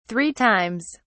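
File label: "three times", British English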